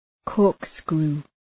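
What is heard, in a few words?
"corkscrew", English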